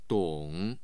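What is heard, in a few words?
"dong", Chinese, third tone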